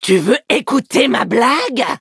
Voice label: levelup, fr; Cookie Run

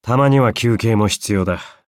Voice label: upgrade, ja

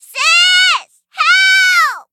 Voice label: defeat, en; Cookie Run

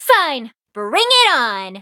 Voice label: battle en

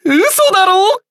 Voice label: Cookie hit, ja

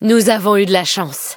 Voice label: levelup, fr; Cookie Run

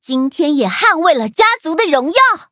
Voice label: levelup zh-hant; Cookie Run

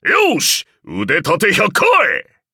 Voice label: upgrade, ja; Cookie Run

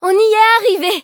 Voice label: victory, fr; cookie run

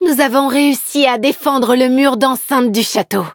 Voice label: victory, fr; cookie run

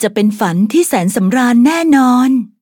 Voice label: level up, th; Cookie Run

